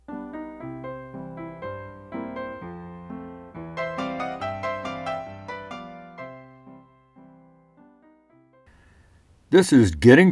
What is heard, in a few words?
Bird Interview